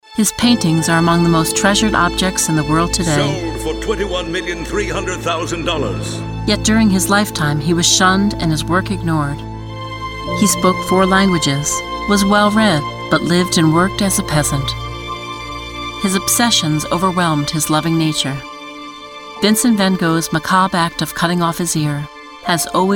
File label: documentary, female voice over; vincent van gogh